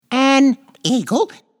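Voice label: "eagle", English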